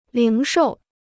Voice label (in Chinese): "零售", Chinese